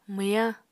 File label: "mwyañ", Apatani